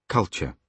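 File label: "culture", British English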